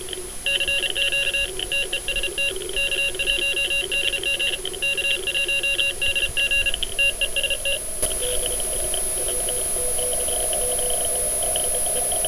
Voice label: mono processed; dayton 2009